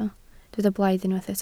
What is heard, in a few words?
"blaidd", Welsh